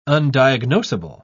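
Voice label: "undiagnosable", British English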